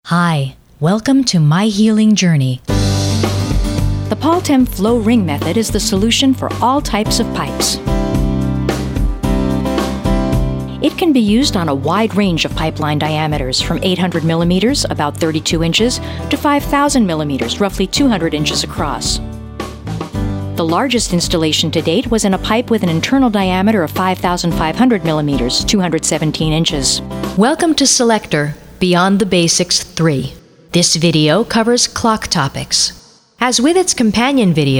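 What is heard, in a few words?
Narrative, voiceovers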